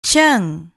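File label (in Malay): "ceng", Malay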